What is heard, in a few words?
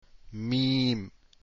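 meem, arabic letters; deen path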